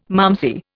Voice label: "malmsey", Indian